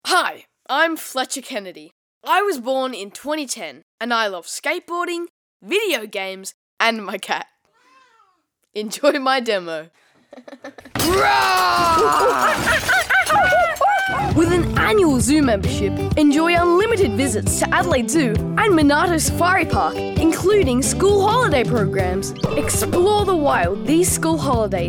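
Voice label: voice over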